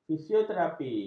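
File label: "fisioterapi", Malay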